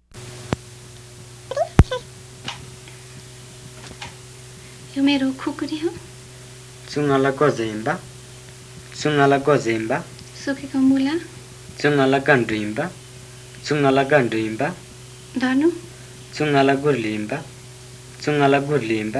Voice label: Western Tamang

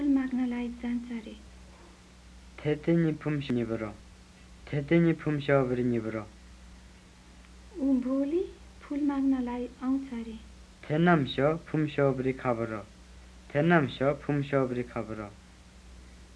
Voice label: Western Tamang

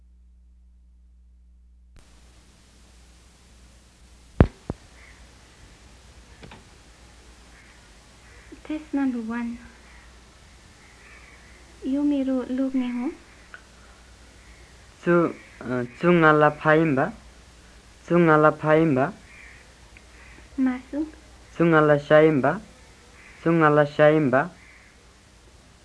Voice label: Western Tamang

